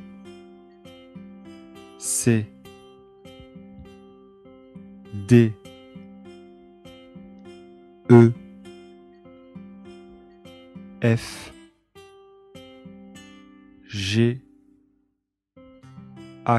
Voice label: alphabet french; Écouter et répéter l'alphabet français